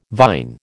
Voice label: "vine", American